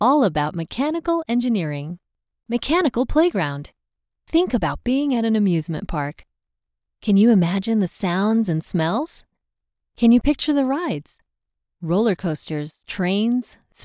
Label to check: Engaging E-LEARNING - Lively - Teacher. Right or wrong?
Right